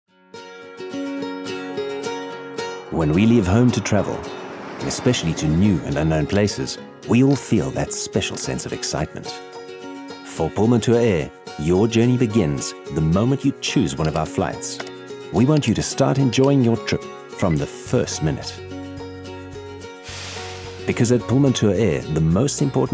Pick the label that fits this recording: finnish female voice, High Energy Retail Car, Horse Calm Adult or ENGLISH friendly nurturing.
ENGLISH friendly nurturing